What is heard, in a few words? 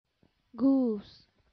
"goose", English